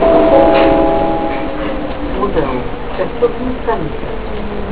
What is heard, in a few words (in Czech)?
Vlaková hlášení, Pražské metro; Muzeum, přestupní stanice.; Vlaková hlášení - (stará verze používaná cca. do poloviny roku 2004)